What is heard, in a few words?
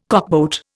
"cockboat", Indian